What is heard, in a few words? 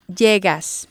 "llegas", spanish